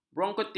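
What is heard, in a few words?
"bronkitis", Malay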